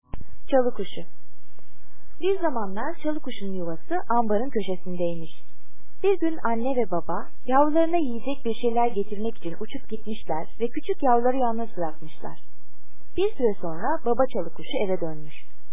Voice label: turkish